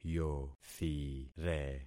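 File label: "yothire", concatenated syllables; Affixing experiment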